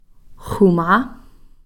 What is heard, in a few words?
"xuma", food